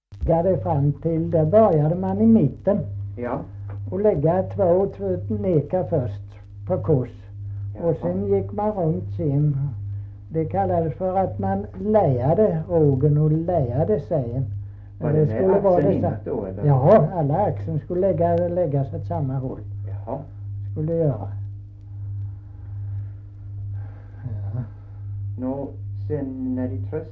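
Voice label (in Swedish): Kristianopel Blekinge, dialekter; Folkminnen; Svenska sagor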